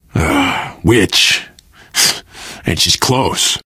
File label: heard witch, survivor voice